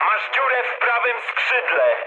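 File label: right wing, mood low, Polish speech; War Thunder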